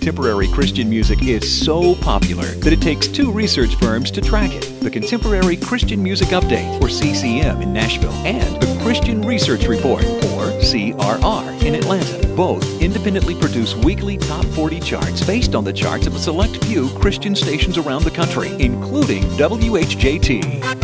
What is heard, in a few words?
Countdown Explanation Promo